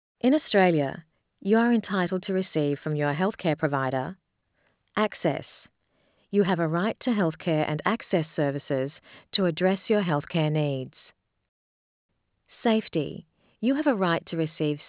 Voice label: English Australian; Charter of Healthcare Rights